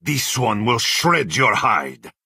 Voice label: Khajiit male taunt